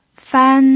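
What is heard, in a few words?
"fan", Mandarin Chinese, first tone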